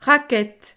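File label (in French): "raquette", French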